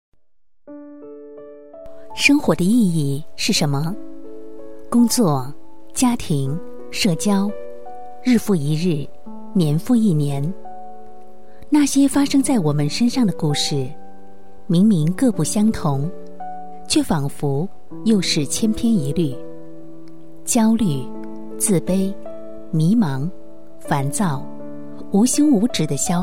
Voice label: 女 温情解说; 生活的意义

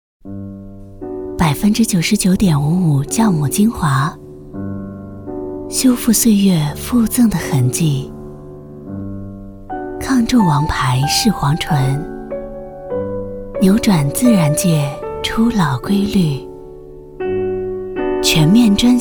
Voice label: 女 广告 清新温柔沉浸式

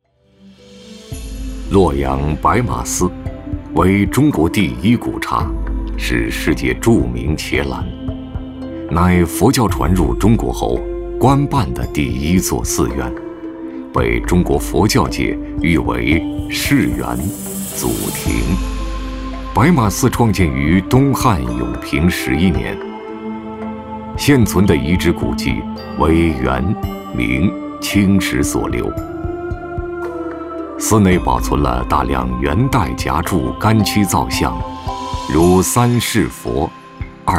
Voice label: 男 专题片 深沉大气; 档案馆

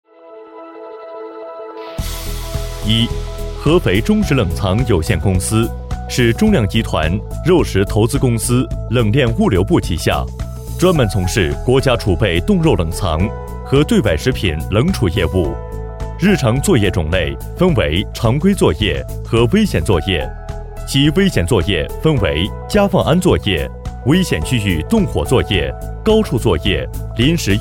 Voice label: B男 课件 企业介绍 平稳叙事

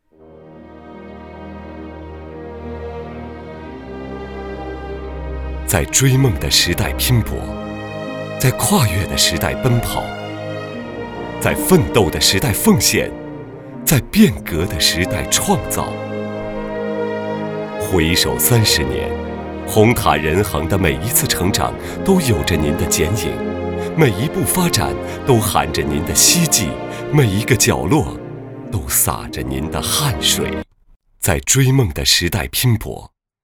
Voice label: 男 大气专题 人物介绍

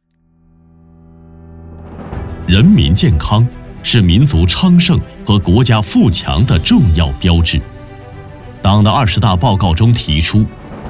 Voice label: A男 专题片; 全力守护每一名科研人员的健康

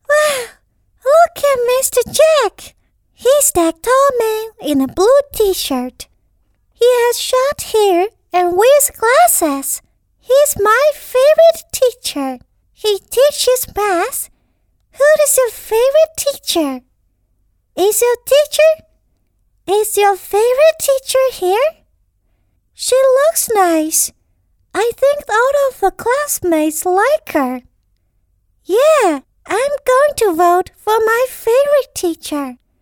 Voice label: 女 英语 童女

